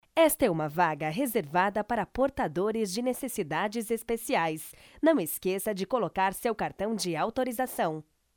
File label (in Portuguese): Locução